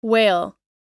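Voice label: "whale", English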